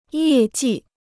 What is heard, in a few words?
"业绩", Chinese